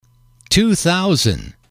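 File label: "two thousand", English, male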